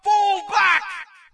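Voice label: British fall back; player; source engine; dod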